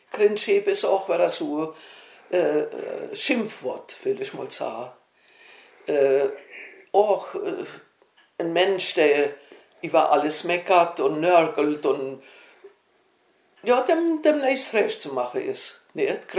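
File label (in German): grindscheed, O-Ton Hunsrück; Mastershausen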